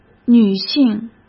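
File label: "女性" Mandarin Chinese; hsk; chinese-flashcards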